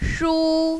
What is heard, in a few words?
"shu1", mandarin, female